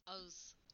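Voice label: "mouth", Turkish; PictureDictionary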